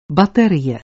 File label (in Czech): baterie, tramvaje zastavky; praha